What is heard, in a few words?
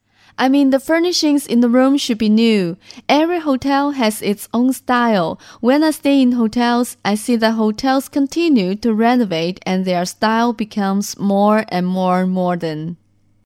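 Chinese, English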